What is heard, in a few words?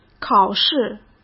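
"考试", Mandarin Chinese; chinese flashcards; hsk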